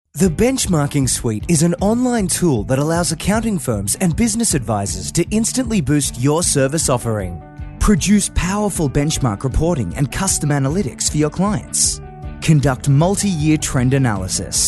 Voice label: Explainer